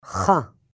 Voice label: "Chuh", Hebrew; hebrew introduction